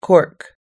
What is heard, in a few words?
"cork", US English